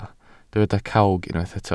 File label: "cawg", Welsh